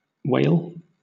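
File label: "wale", British English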